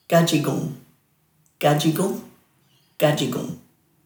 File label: "gadjigung", Dhurga